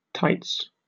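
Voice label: "tights", British English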